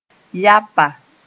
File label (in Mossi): "yapa", moore